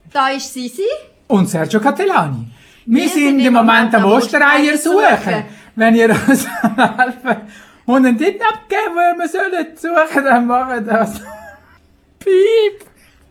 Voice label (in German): Ostern Ansage